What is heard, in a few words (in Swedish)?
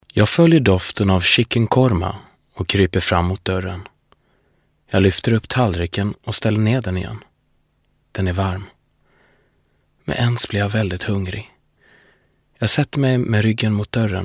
se m ljudbok